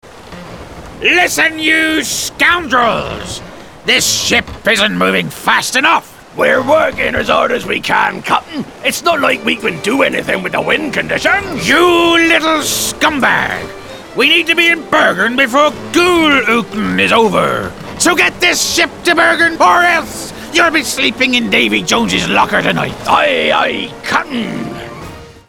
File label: Captain pirate